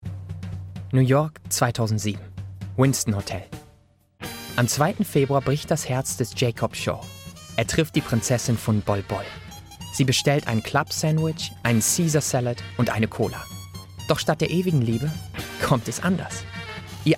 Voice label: German; Farsi, Middle Eastern, Male, 20s-30s

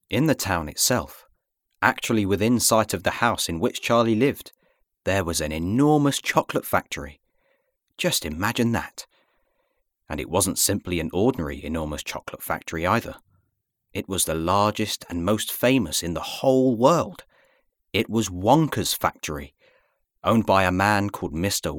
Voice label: Audiobook

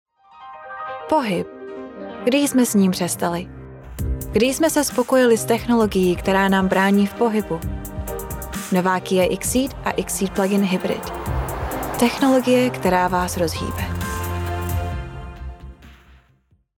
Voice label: CZECH COMMERCIAL

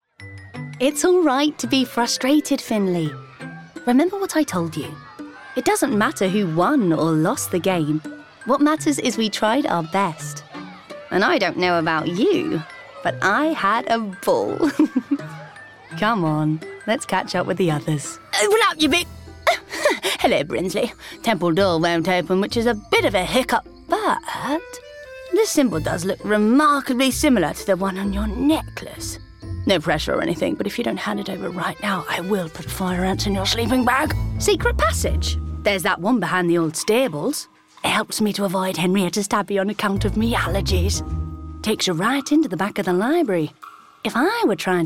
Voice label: Animation, female

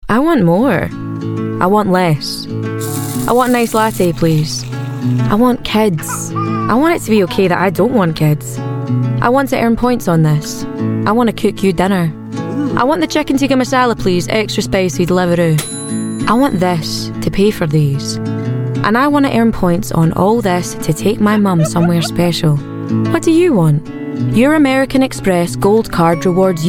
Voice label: Commercial, 20s-30s. Female. Scottish.

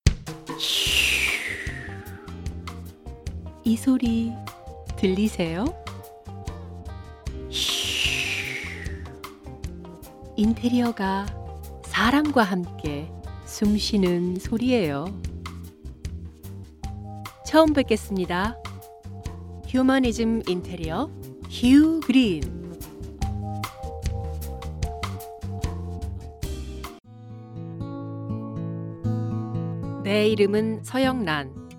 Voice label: Korean

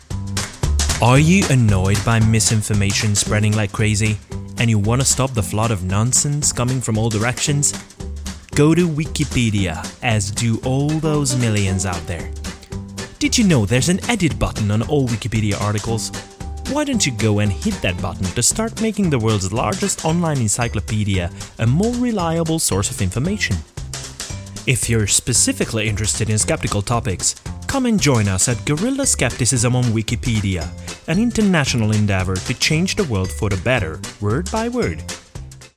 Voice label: Hungarian English

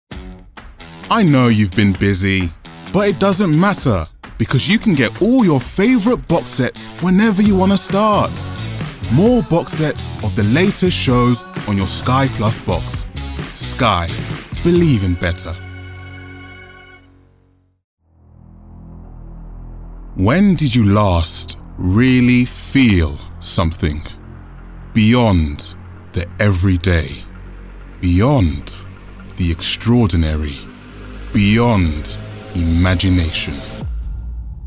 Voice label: Commercials, black british

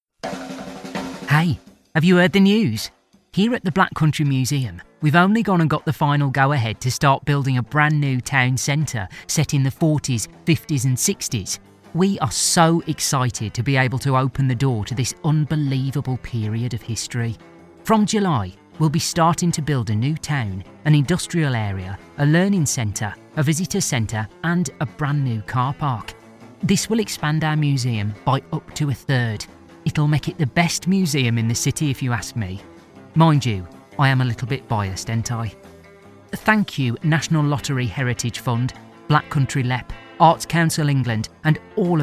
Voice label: Commercials Library

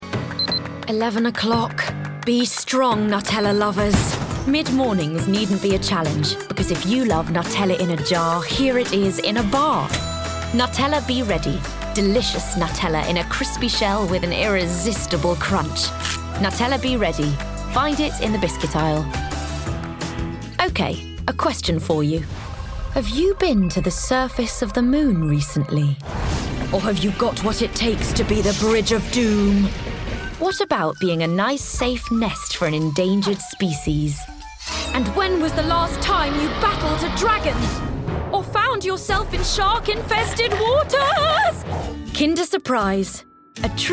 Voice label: Commercial

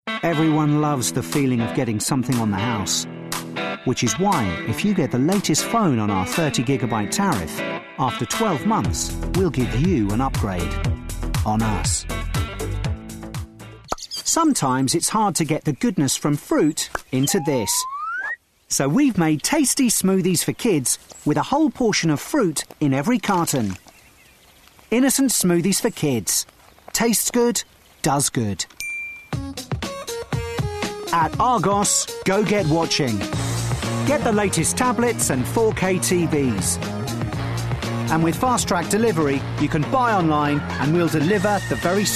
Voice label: Commercials; Teens-20s; Good RP

